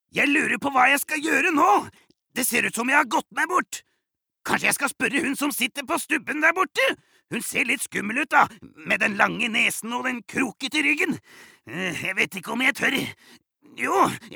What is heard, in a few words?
Norwegian dub character, male